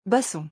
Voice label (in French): "basson", French; vocabulary; musical instruments